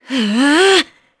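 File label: Vox Casting, Japanese; Naila; kingsraid; voices heroes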